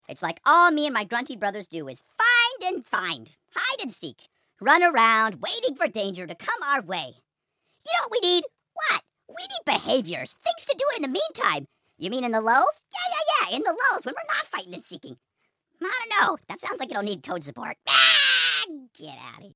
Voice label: grunt outtake